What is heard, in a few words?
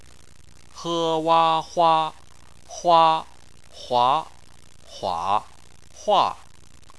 "hua", chinese